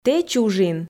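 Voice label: "тэ чужин", Komi, female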